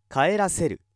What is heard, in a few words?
male